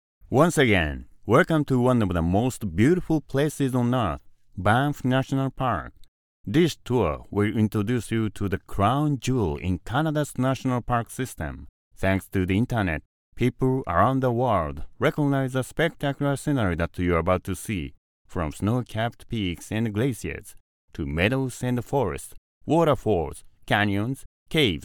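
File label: Japanese, male, English, tour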